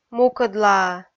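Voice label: "мукӧдлаӧ" Komi, female; kpv audio dict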